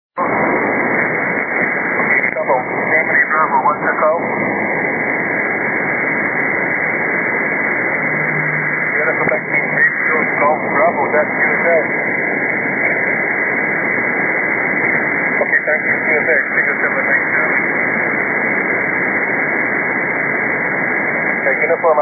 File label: 15m ssb